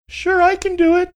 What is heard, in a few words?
saying sure i can do it falsetto